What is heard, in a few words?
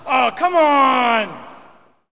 Hockey Male "Come On"; Hockey Vocal Sounds